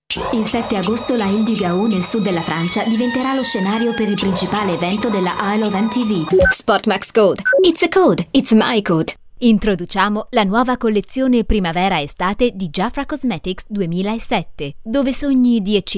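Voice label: Italian; GoLocalise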